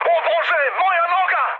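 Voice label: Serbian damaged pilot mood high, speech; War Thunder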